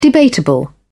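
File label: "debatable", British English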